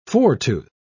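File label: "foretooth", British English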